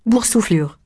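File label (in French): "boursouflure" French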